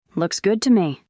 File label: fem